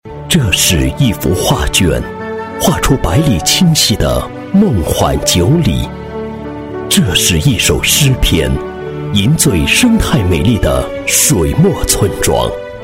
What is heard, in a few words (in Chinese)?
男 旅游 观光片 中国养生运动第一溪, 配音案例; 九鲤溪观光片